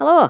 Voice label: "hello", Irish; Worms Armageddon